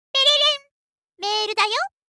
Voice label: システムボイス

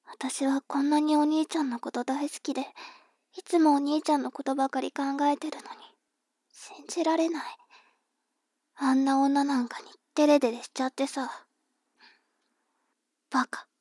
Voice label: 妹 台詞

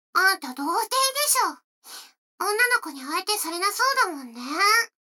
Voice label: 女の子 台詞

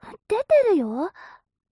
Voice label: mob 女子 台詞

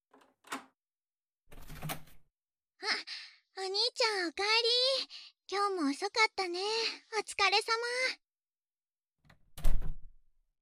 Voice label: くちゅ音無し; いっぱいいっぱい射精しよ？

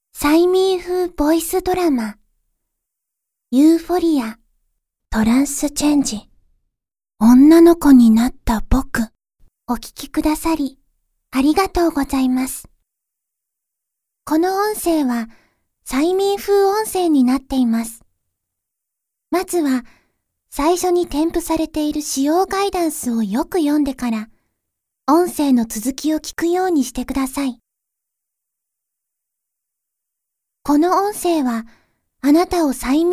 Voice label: 催眠 パート ショート; EUPHORIA~TRANCE CHANGE~; 催眠誘導ショート

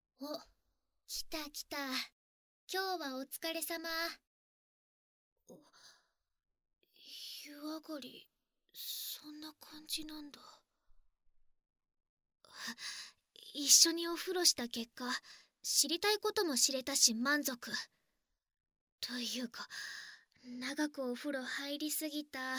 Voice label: 肩を並べて眠る夜（Voice）, ASMR

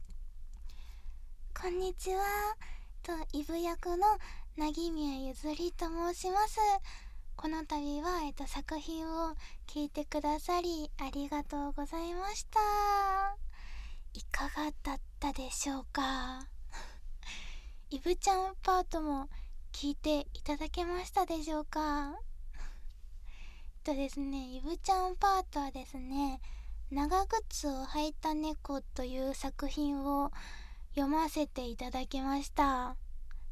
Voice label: フリートーク

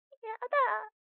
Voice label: 彼女の電話台詞, ASMR

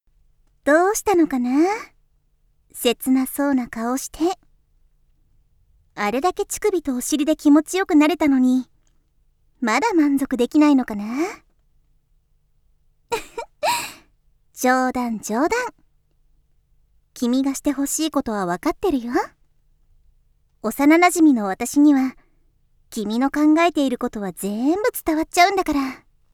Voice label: 囁きカウントダウン; 囁きカウントダウン射精誘導

